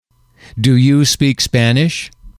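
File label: "do you speak language", English, male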